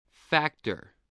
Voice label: "factor", voice